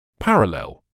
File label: "parallel", English